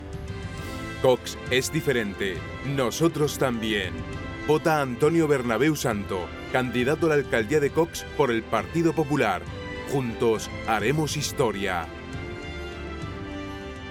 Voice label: spanish political ads